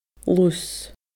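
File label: "lus", Scottish Gaelic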